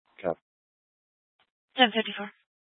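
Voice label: Police Dispatch, Scanner audio; Police 1 Dispatch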